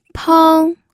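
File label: "peng", Mandarin Chinese, first tone; pinyin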